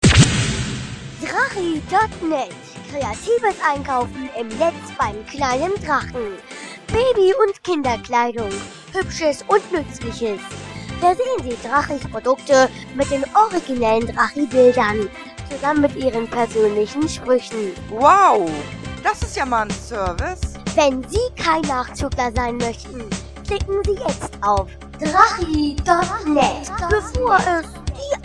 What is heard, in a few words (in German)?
Werbespot; Drachinet